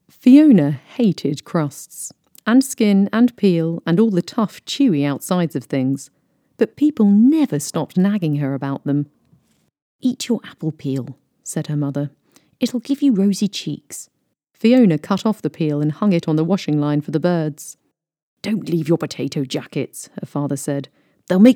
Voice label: Childrens audiobook